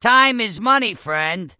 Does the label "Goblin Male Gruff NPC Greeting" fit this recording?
Yes